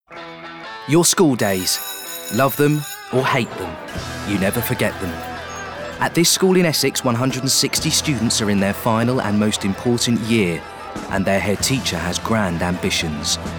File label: narrative; Educating Essex